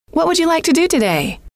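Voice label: what would you like to do today, female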